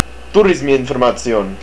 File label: Tourist info, estonian